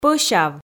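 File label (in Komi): "пӧсяв", Komi, female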